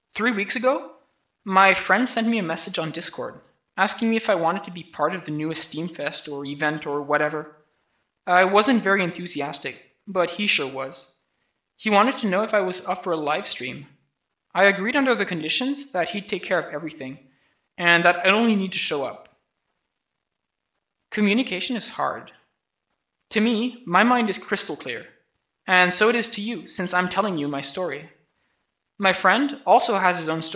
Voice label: voice, narration